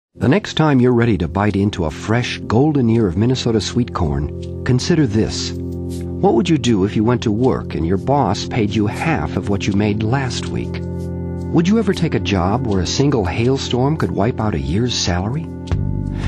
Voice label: Narration VO